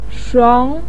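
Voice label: "shuang", Mandarin Chinese, second tone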